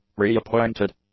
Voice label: "reappointed", English; adjectives

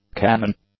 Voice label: "cannon", English; nouns

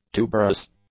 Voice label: "tuberous", English; adjectives

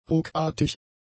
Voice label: "ruckartig", German; adjectives

